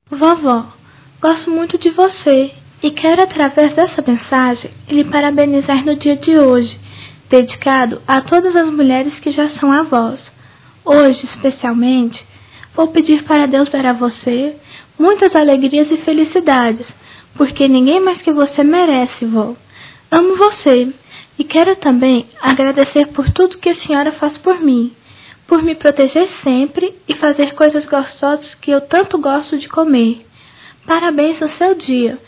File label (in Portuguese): voz infantil; Dia das Maes; Telemensagens 2017